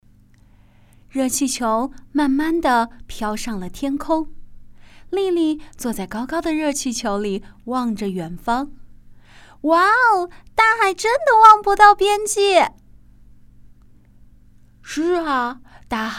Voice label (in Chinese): 儿童 故事